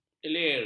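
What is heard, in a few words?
"kelir", Malay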